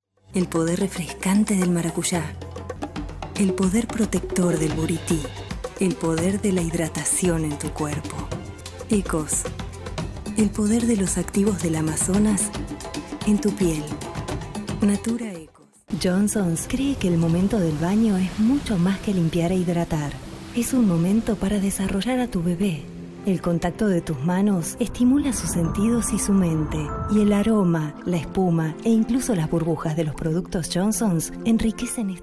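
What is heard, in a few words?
Spanish Latin America female sales; spanish americas voice over talent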